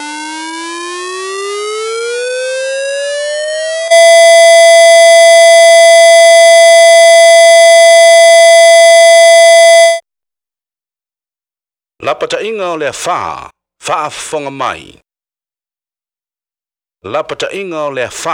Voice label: siren message cyclone warning; TEMCO